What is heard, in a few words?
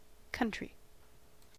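"country", US English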